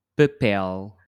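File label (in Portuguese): "papel", português europeu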